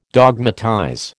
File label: "dogmatize", American